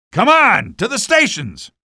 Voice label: cit squad flee, male; Garry's Mod

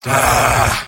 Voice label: engineer negative vocalization; tf2; mvm

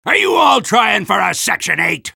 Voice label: soldier jeers; vo; tf2

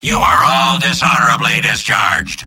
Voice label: soldier jeers; mvm

